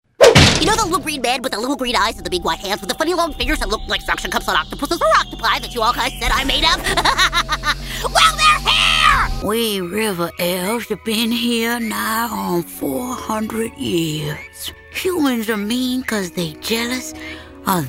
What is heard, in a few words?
character